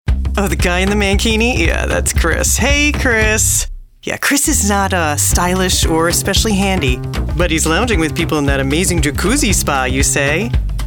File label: COMMERCIAL